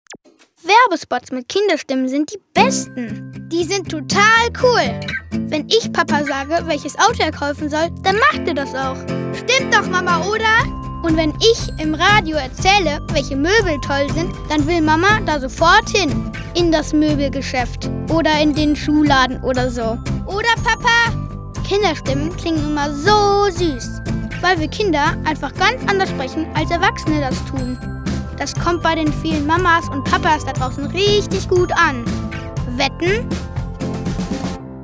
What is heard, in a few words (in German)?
Werbung